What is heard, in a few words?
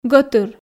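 "гӧтыр", Komi, female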